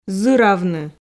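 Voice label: "зыравны", Komi, female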